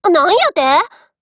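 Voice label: MOOD, Japanese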